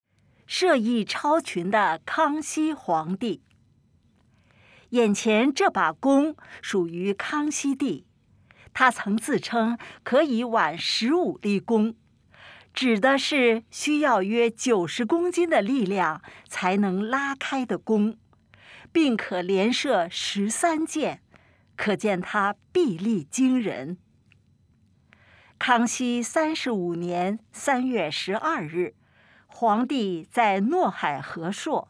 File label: Putonghua; 射艺超群的康熙皇帝; 康熙帝御用弓; 故宫博物院; 眼前这把弓属于康熙帝。他曾自称可以挽十五力弓，指的是需要约90公斤的力量才能拉开的弓，并可连射十三箭，可见他臂力惊人。